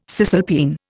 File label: "cisalpine", Indian